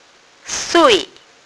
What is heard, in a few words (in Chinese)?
"sui4", phonics